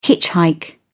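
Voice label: "hitchhike", British English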